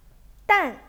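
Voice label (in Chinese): "dan4", Chinese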